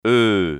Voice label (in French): eu son, phonetique